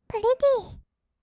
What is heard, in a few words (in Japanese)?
プリティー, kawaii voice; ASMR; 色々なワンショット